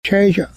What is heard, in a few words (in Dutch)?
"чай", Enets; thee-in-andere-talen